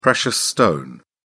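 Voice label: "precious stone", British English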